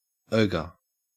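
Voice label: "ogre", Australian English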